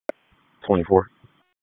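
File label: Police Northeast District Dispatch; Raleigh; wake; p25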